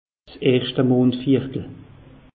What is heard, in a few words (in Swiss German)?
"mondviertel", alsace; meteo